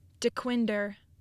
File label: "Dequindre", Michigan Pronunciations